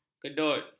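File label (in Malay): "kedut", Malay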